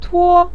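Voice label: "tuo" neutral tone, Mandarin Chinese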